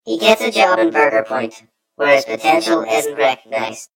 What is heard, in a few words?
story voiceover; yumpod